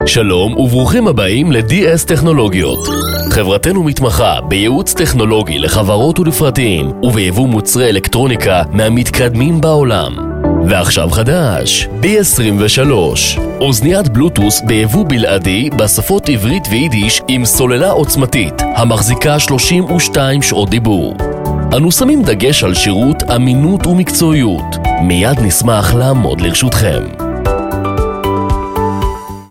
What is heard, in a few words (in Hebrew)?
גינגל עסקי; די אס טכנולוגיות